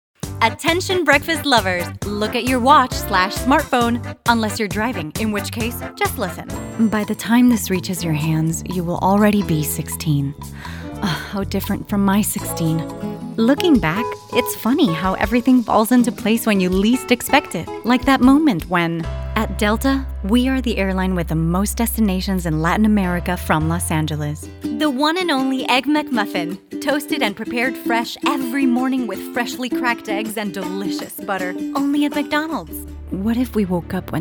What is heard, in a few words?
Eng